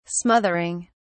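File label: "smothering", British English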